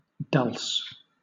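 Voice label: "dulse", British English; pronunciation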